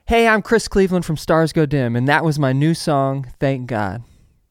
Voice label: song backsell